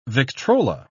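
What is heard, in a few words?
"victrola", British English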